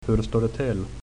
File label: how are you, swedish